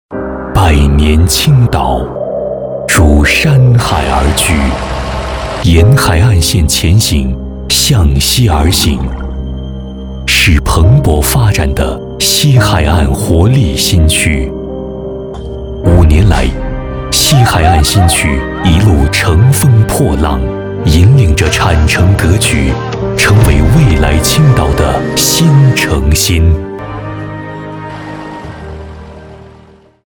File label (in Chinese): 男 大气 地产; 海信地产